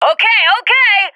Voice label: cheer; Counter Strike Model Pack; CS:GO